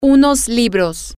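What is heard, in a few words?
some books, Spanish, female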